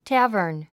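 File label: "tavern", 英単語 発音; tǽvə r n テェヤバン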